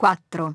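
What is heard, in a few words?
"four", English; iOS 4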